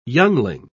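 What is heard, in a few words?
"youngling", British English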